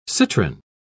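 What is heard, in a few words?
"citrin", British English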